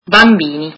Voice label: italian children